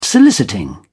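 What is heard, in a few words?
"soliciting", English, British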